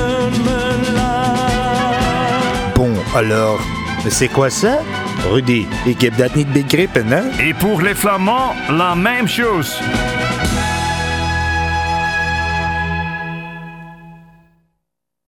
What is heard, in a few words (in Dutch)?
spot Vlaamse Top 100; Diest